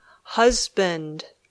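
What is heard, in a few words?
"husband", English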